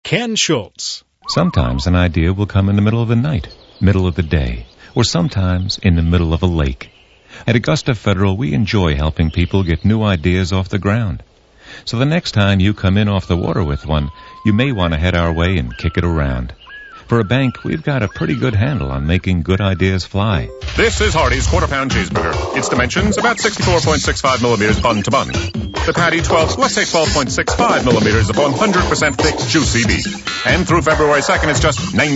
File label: male; Spotland Productions